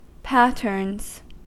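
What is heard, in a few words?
"patterns", US English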